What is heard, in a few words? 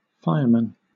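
"firemen", British English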